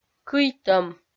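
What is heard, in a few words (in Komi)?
"кыйтӧм", Komi, female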